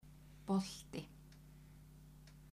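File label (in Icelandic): "bolti" Icelandic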